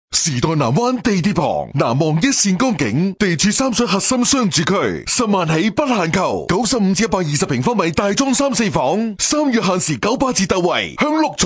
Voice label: 男 促销 楼盘促销

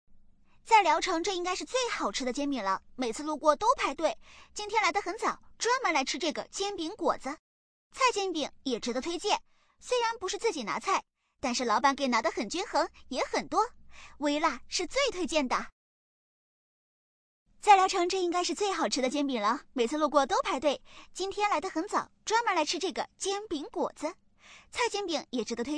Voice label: 女5号抖音视频配音 探店风格广告

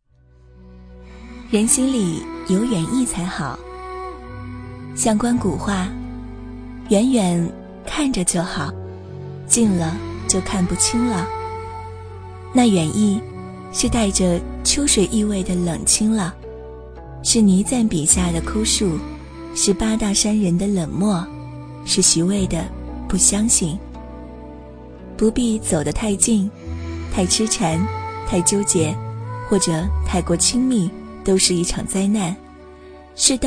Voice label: 女 专题 文章读物; 远意

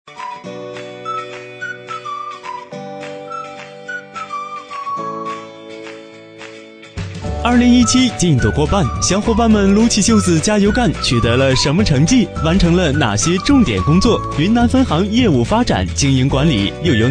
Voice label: 男; 银行; 飞碟说

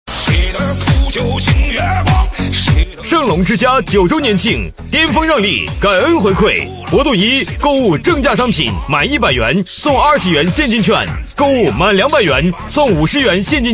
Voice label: 男66号促销; 盛隆之家九周年庆

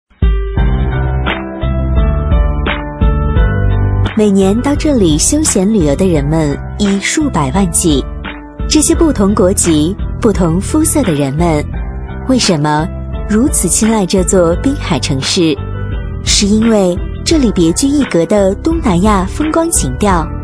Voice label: 【专题】甜美专题-厦门旅游, 女

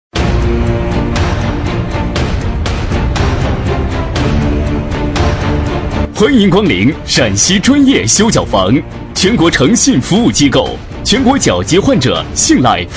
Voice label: 男 宣传; 陕西专业修脚房

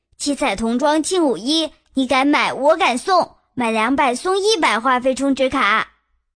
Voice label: 女 童声 男童

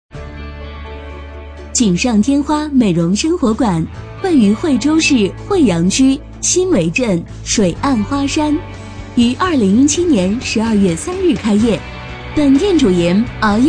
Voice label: 女 解说, 美容生活馆; 锦上添花美容生活馆

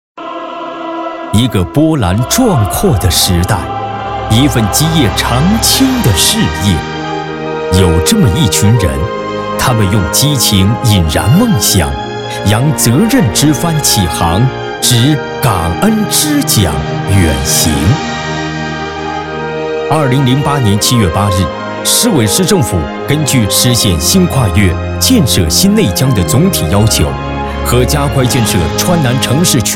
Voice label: 男 大气宣传

